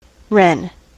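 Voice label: "ren", US English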